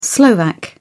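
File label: "slovak", British English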